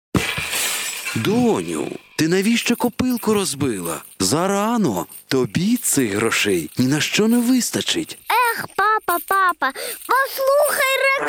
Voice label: Ukrainian

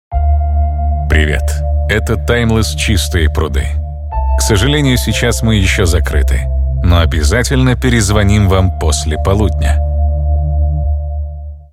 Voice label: IVR

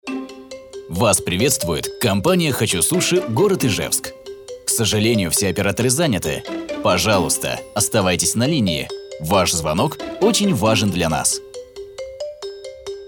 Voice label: IVR

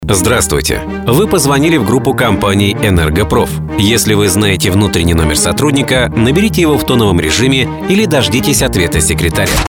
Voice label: IVR

